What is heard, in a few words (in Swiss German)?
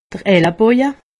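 "elleboje", Haut Rhin; medecine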